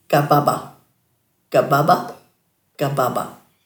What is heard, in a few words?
"gababa", Dhurga